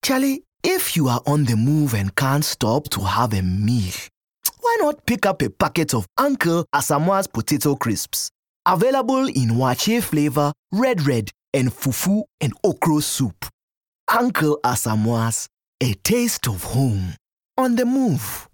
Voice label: English Accent West African; OSM Talent